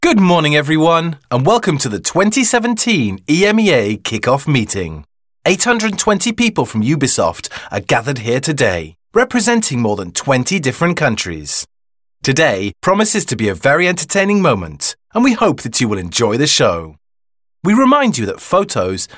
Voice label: EVENT Voice of God; GB; voix speciale; voix-off